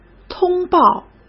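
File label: "通报", Mandarin Chinese; chinese flashcards; hsk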